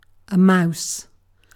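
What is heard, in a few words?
"mouse", British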